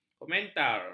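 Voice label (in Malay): "komentar", Malay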